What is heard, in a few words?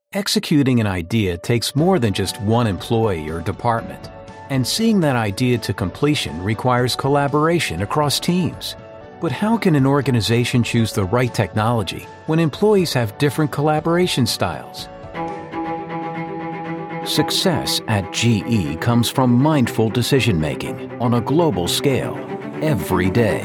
Corporate Narration Voice Over